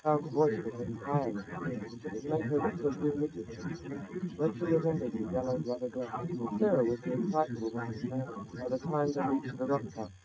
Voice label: male srec 130ms 50cm sim; SiSEC11; underdetermined